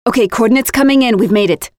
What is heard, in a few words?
Win match, Voiceovers; Project Argo